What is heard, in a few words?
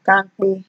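"kampe", Nigerian Pidgin, female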